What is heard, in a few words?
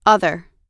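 "other", American English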